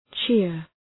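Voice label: "cheer", English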